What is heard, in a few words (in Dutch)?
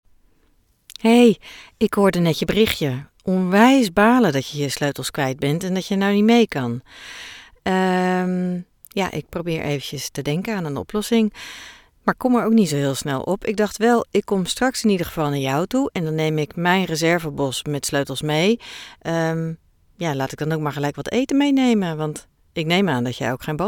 voicemail; Sleutels kwijt